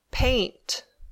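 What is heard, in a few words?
"paint", English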